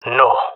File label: negative, jungle male; Counter Strike Model Pack; CS:GO